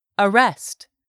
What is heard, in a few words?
"arrest", english; 3000 words